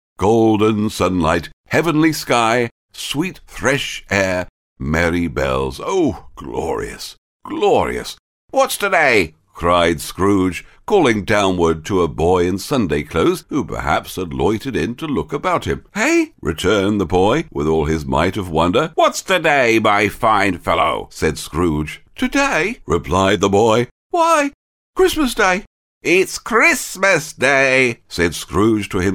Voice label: fiction audiobook excerpts, uk voiceover